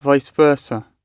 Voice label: vice versa, gb; en